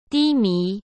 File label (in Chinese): "低迷", Chinese; hsk